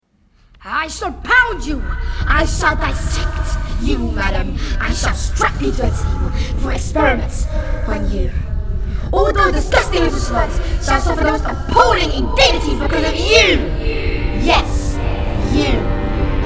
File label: monologue new and quiet; trunchball